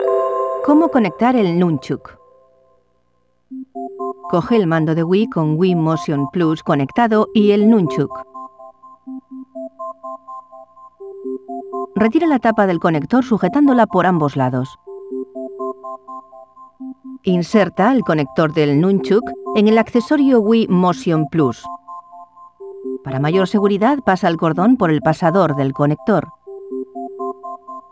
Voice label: Connecting the Nunchuk (Spanish); the legend of zelda skyward sword wii gamerip 2011